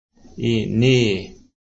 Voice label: "buffalo", Blackfoot